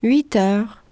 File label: french 9 hour